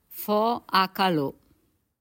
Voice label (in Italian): Dialetto di Mornese